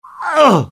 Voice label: death, UK; mohaa; dialogue

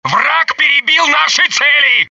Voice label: russian failure; dialogue; mohaa

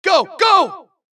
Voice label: supress, dialogue Allies; mohaa; Mission 2

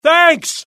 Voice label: alert, UK; mohaa; dialogue

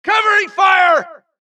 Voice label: supress, dialogue Allies; mohaa; Mission 2

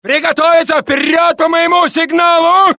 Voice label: Russian; mohaa

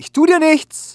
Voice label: curious; mohaa; dialogue

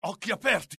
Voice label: alert, dialogue IT; mohaa; attack

